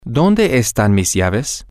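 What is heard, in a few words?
Spanish, where are my keys, male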